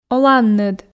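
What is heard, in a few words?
"оланныд", Komi, female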